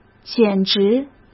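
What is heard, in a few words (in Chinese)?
"简直", Mandarin Chinese; chinese-flashcards; hsk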